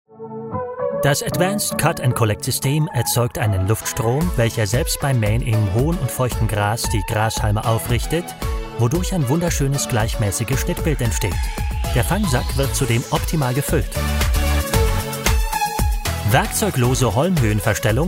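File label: German, male, corporate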